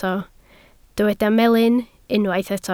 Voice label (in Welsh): "melyn" Welsh